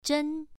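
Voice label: "zhen", Mandarin Chinese, first tone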